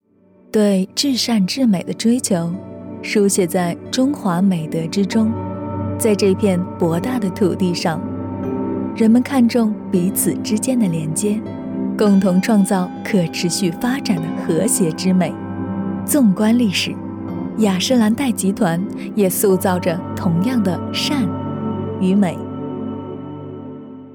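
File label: Chinese Mandarin, female, commercial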